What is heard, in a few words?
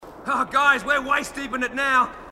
marine aussie; h2dialogue